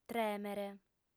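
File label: "trèmere", female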